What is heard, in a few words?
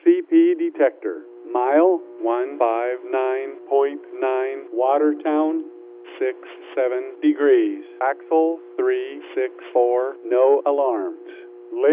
defect detector; CP Watertown Sub MP 159.9